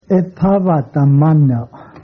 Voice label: good afternoon, Cheyenne

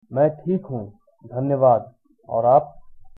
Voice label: fine, hindi

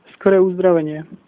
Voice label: get well soon, slovak

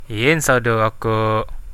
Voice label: leave me alone, Central Dusun

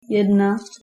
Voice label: "one", Czech

